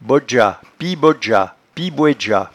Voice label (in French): "bodgeatpiebodgeat", patois jura